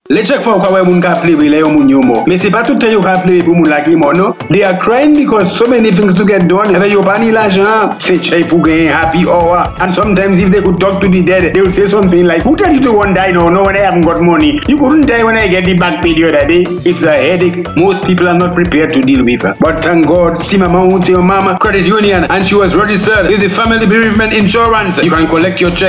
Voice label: Advert Creole